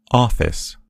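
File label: "office", American English; gcompris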